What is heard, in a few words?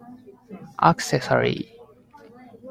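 "accessory", Japanese, male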